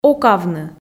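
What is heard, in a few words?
"окавны", Komi, female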